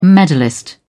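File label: "medalist", English, British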